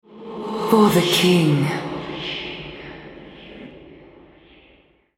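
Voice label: Altar Capture Female, en US; ShadowIsles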